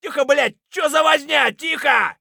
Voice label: silence; Escape from Tarkov; scav4